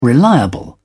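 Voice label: "reliable", British English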